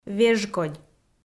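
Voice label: "вежкодь", Komi, female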